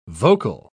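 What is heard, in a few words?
"vocal", English pronunciation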